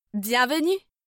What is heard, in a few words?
bonjour, voix; fr